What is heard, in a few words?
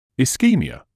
"ischemia", English